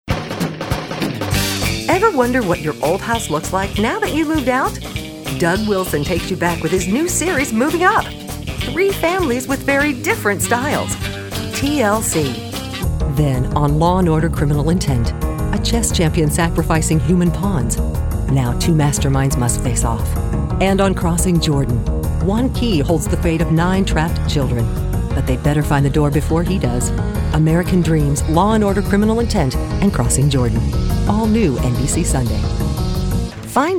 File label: promo